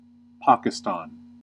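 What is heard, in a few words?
English US "Pakistan"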